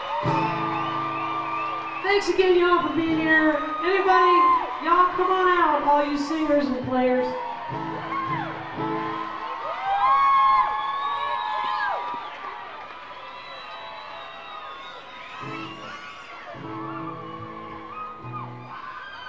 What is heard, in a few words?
talking with crowd; universal amphitheatre; los angeles, california